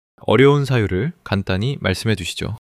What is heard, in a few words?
neutral